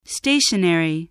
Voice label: "stationery", voice